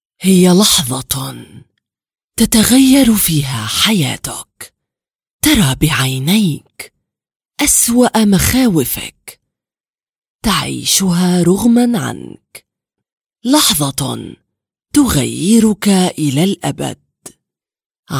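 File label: Arabic female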